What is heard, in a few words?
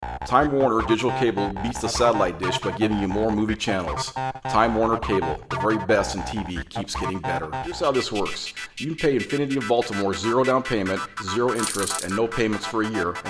voiceover